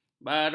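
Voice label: "baron", Malay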